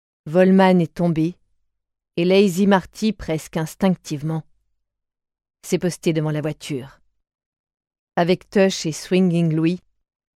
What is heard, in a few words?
Narration; Ouragan; GAUDE